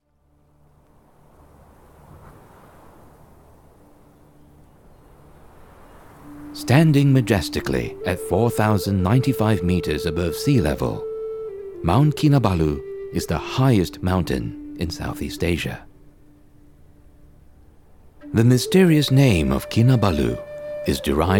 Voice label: Documentary